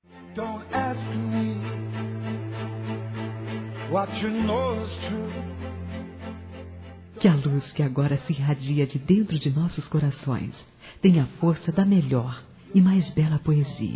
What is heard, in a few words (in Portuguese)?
Aniversário Namoro Feminino